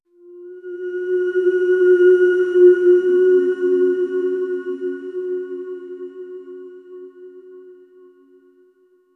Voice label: telepathic voice starts; wise

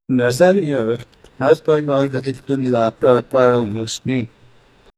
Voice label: voices in arabic and berb

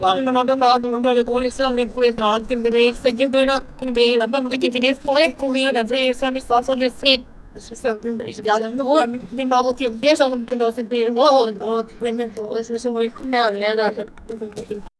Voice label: npc dialogue mumbling

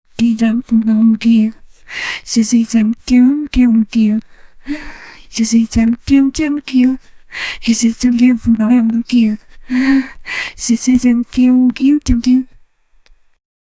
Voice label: epic female adult voice, assertive

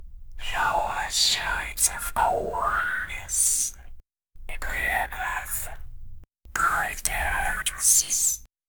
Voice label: creepy ominous gibberish

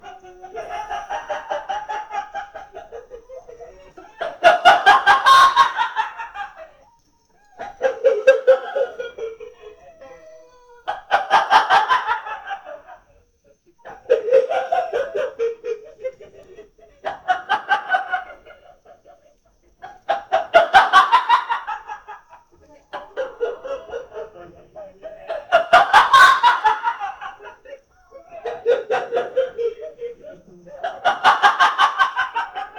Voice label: two nigerians, africa

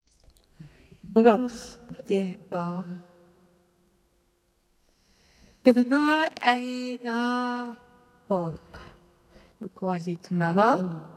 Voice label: natural english girl; female

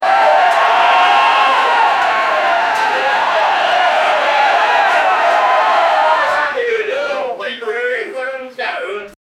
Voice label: video game announcer excl

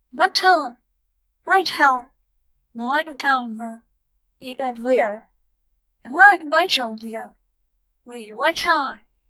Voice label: the voice of a female, character

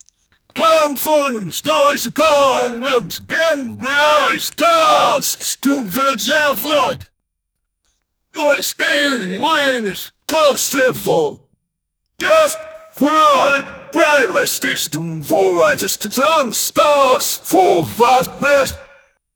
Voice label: ww1 war german attack voi; history

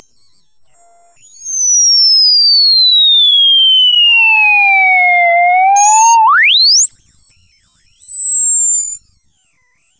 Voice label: generate a modern high en, authoritative

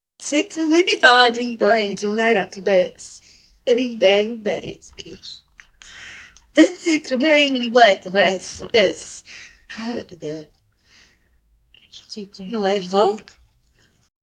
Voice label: don't move you're being det, dialogue

